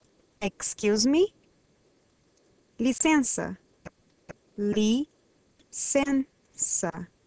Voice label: Portuguese Excuse